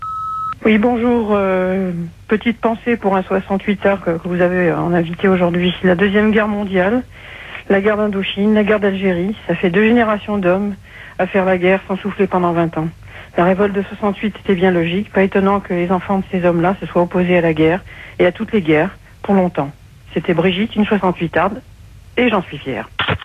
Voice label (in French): repondeur; Soixante-huitards